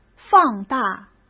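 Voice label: "放大", Mandarin Chinese; chinese-flashcards; hsk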